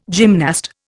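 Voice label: "gymnast", Indian English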